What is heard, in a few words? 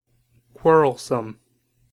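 "quarrelsome", US English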